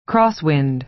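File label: "crosswind", American English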